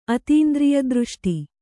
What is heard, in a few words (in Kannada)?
"ಅತೀಂದ್ರಿಯದೃಷ್ಟಿ", Kannada